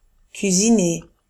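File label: "cuisiner", French; famille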